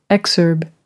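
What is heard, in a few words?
"exurb", British English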